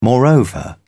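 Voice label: "moreover", British English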